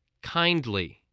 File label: "kindly", English